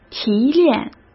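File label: "提炼", Mandarin Chinese; hsk; chinese-flashcards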